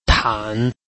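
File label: "tan3", Mandarin Chinese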